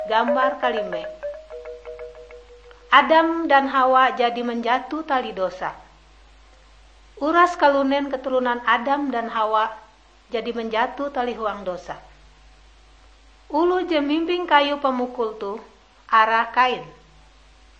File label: kabar bahalap good news ngaju, drama